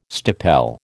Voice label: "stipel", American